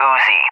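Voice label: "Uzi", English, USA; CallSign; DCS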